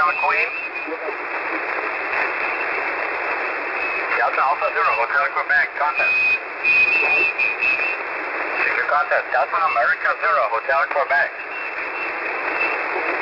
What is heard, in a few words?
DA0HQ usb, da0hq contest